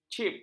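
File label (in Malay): "cip", Malay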